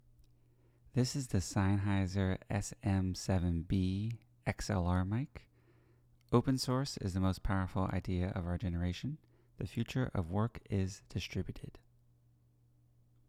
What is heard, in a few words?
sm7b mono; sennheiser